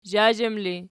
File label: "zhazham", Archi